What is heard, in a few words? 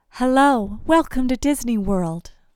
Disney Voice; Exercises for Nasality